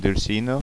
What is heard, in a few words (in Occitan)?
"dulcina", occitans